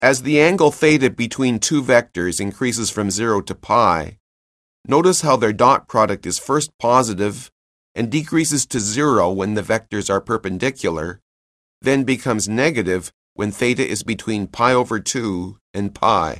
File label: narration; CALCULUS 7E; cengage